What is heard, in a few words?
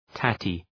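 "tatty", English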